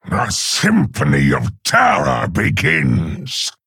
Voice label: Male Orc

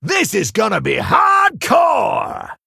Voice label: Male Tauren Greetings; ETC King of Rock

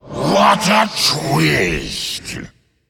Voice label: Male Faceless Attack

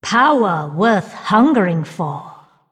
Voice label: female undead wow

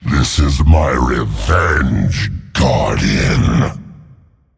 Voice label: Male Demon Intro

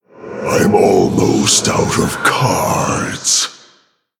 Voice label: Male Orc Low Cards; Garrosh Deathknight

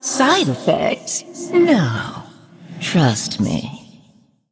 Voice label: Female Undead

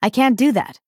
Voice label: Female Dryad ERROR

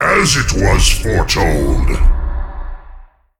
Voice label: Male Demon Thanks

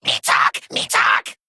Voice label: Male Kobold Attack

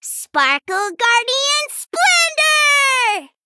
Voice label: Female Gnome Attack

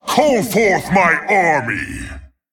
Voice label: Male Tol'vir Play

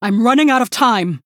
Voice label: Female Human

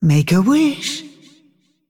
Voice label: Female Dragon shooting star

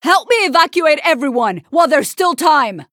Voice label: Female Human; Captain

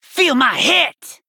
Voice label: Warrior Nonbinary Night Elf Attack

